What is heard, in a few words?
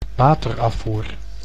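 "waterafvoer", Dutch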